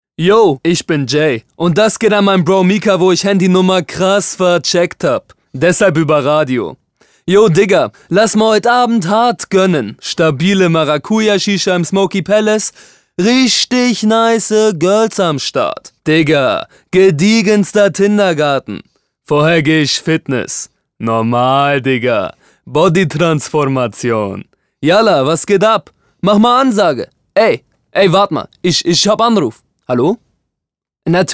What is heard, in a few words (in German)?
Radiowerbung